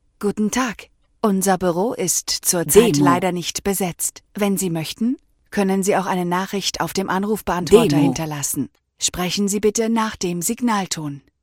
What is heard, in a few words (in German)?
Ansage Buero nicht besetzt mit Hinweis